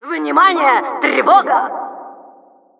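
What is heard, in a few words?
Announcer security alert, Russian; Внимание! Тревога!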